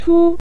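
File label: "chu" Mandarin Chinese, neutral tone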